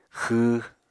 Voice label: "xww", Apatani

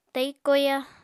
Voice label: "tai koya", Apatani

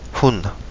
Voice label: "huna", Kapingamarangi

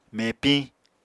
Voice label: "mepiñ", Apatani

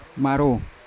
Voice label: "maro", Yawa; forest; unat

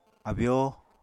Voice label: "abyóo", apatani

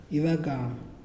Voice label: "water", Muyuw; Lae Unitech

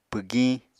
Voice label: "pwgiñ", Apatani

